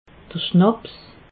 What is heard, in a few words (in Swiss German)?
"schnaps", alsace